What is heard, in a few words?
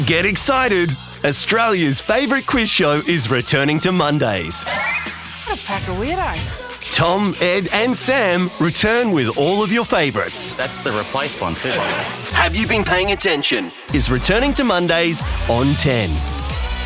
Australian Commercial